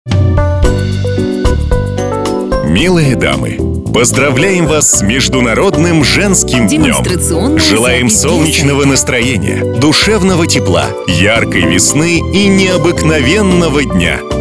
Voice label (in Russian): Поздравление с 8 марта мужской универсальное